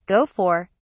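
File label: "go for", British English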